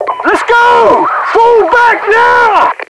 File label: fallback, radio; codmod